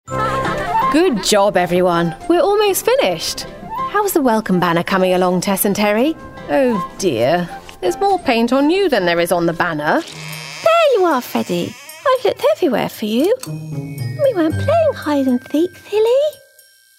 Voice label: Animation Character Voicereel

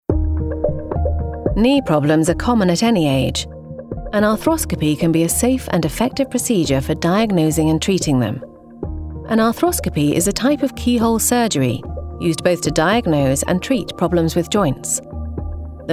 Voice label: Corporate Medical

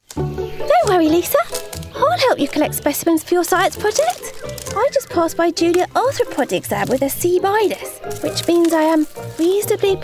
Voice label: Animation Young Boy RP

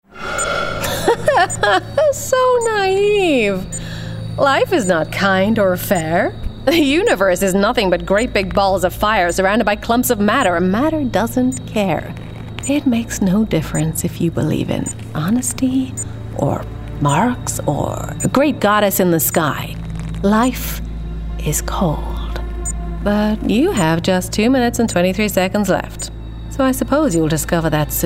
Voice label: Gaming Villain US

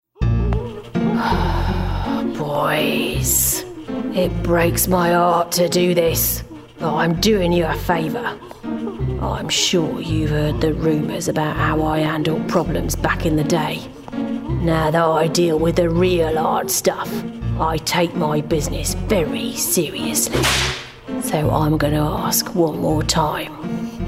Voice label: Animation Cockney Gangster

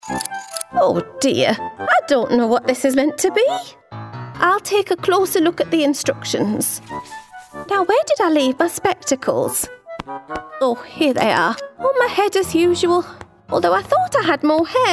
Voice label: Animation Old Lady Northern

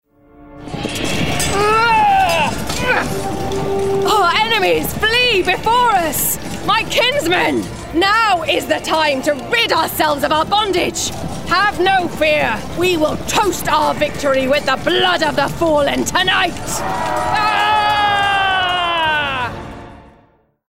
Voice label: Gaming Warrior RP; Boudica

